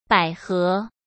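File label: "百合", Mandarin Chinese; hsk; chinese-flashcards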